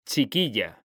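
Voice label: "chiquilla", Spanish, male; mekanta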